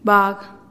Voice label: "bag" cow, teotitlan